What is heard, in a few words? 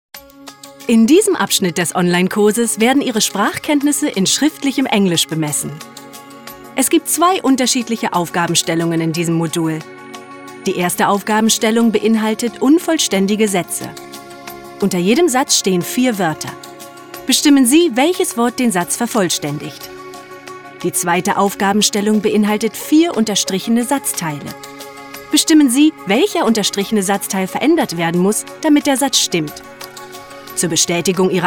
Narrative